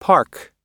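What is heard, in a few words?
"park", American English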